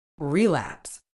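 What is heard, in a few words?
"relapse", medical English, British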